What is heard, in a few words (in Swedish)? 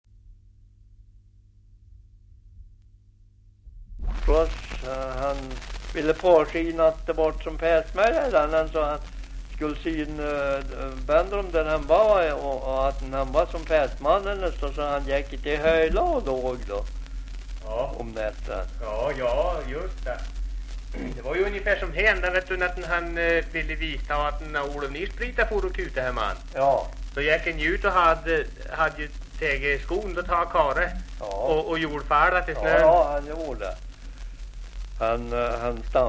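Aspås Jämtland, dialekter, svenska sagor; Folkminnen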